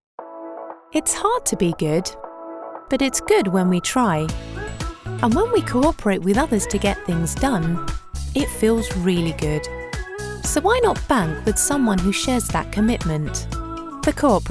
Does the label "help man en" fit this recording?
No